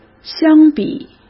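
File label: "相比", Mandarin Chinese; chinese flashcards; hsk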